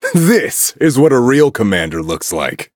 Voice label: levelup en